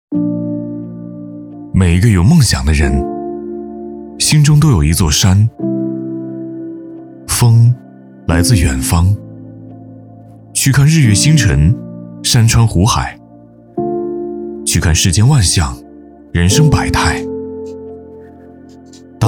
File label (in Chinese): 男 走心旁白 大气有感情; 梦想